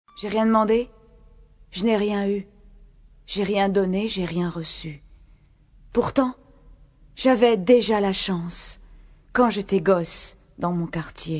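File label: Theatrical, French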